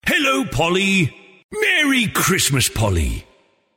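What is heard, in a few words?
Personalised Santa Message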